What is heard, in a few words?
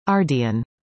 "ardian", english; indonesian boy names